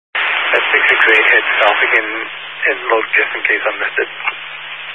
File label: Highway Patrol, scanner; DPS; Dist 06 Casa Grande